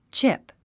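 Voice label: "chip", English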